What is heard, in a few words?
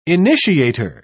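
"initiator", British English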